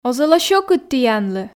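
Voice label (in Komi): оз-ӧ ло сьӧкыд тіянлы, Komi, female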